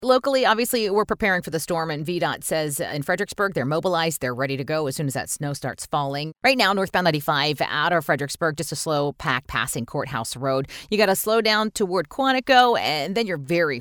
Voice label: Aircheck TRAFFIC; Remote News Service; RNS